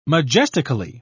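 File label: "majestically", British English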